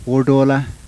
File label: apical postalveolar, yanyuwa